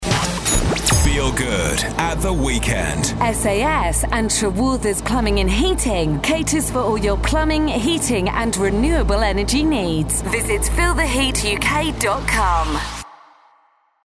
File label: radio advert